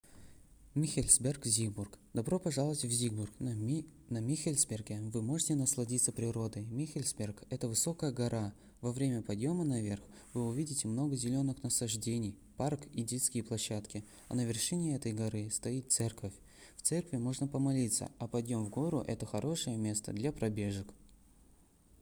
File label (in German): Russisch; Michelsberg Siegburg